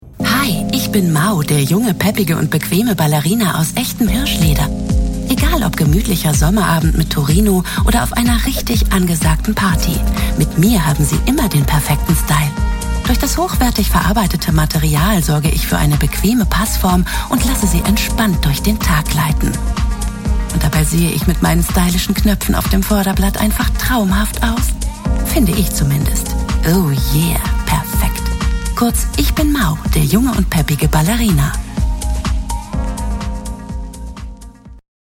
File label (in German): Freundlich, W